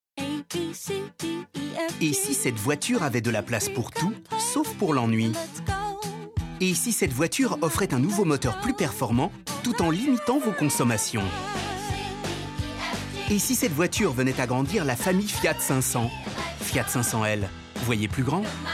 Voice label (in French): pub TV; FIAT 500L Limited Edition